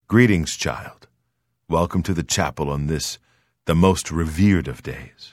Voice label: Greeting Male; Unused Holiday; Redguard